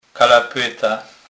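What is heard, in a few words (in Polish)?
"kalapeta", Kurpie dialect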